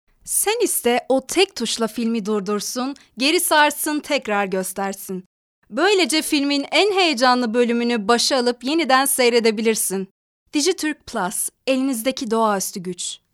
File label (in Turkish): reklam